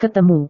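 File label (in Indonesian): "ketemu", Indonesian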